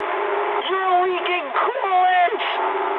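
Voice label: damaged water mood high, speech English; War Thunder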